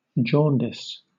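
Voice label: "jaundice", British English; pronunciations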